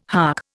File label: "hark", Indian